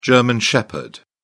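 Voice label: "german shepherd", British English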